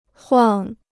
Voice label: "晃", Chinese